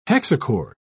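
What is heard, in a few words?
"hexachord", English, British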